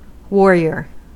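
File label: "warrior", American English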